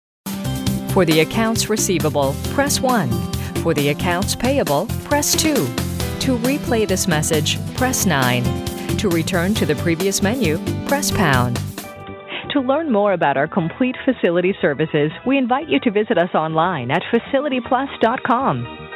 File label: canadian female on-hold telephone